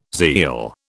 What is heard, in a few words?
"zeal", British